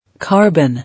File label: "Carbon", English